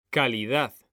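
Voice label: "calidad", Spanish, male; mekanta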